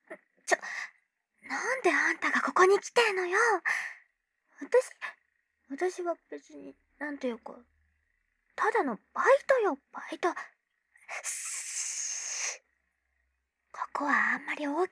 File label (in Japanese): ツンデレ幼馴染コース 声のみ; 効果音なし